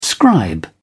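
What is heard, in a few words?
"scribe", British English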